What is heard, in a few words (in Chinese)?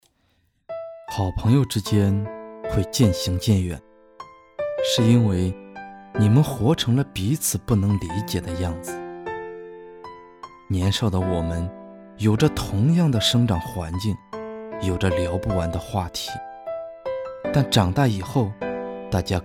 情感旁白